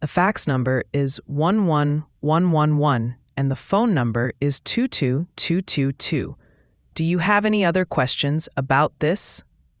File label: question english